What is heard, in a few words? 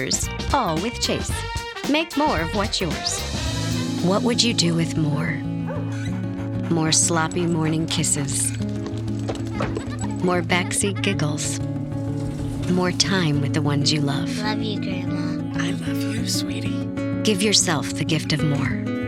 Voiceover, Commercial, Women; New York